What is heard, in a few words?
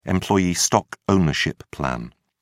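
"employee stock ownership plan", British English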